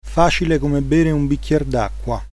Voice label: easy, it